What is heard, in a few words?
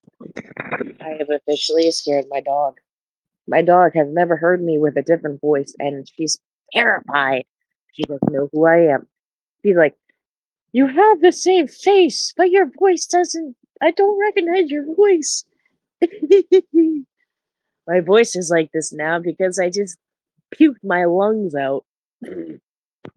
voice message